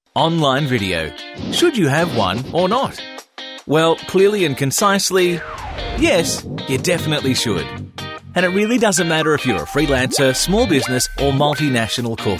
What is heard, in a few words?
Explainer Videos